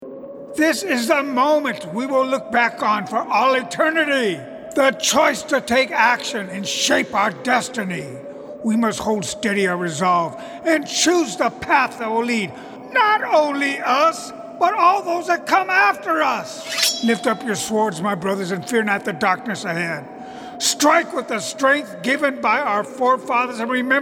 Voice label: Video Games, The Coolest Voice in the Room